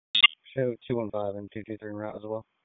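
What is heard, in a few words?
Police District Dispatch, p25; Raleigh; Northeast; wake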